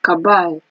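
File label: "cabal", Nigerian Pidgin, female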